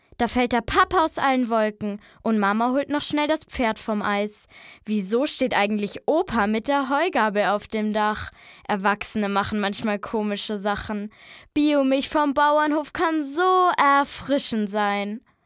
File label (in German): maedchen; Biomilch